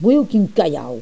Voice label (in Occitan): "bondiu quin calhau", Gascon